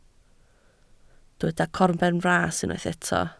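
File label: "corbenfras" Welsh, spoken word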